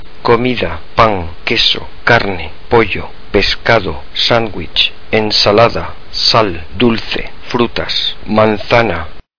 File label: spanish food